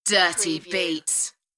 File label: UK female; Dirty beats; beanstalk audio